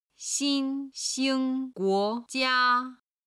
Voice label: "xin1 xing1 guo2 jia1", chinese

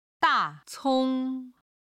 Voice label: "da4 cong1", Chinese

